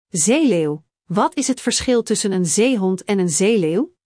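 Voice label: "zeeleeuw", Dutch; Spelling Gr4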